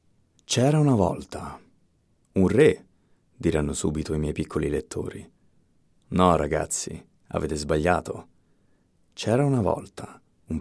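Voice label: Italian Narrative; voice demos; GoLocalise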